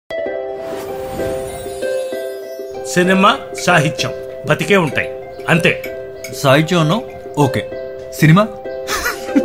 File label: Cinema Dialogue; Sammohanam